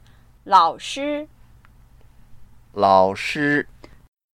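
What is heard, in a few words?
"laoshi", Mandarin Chinese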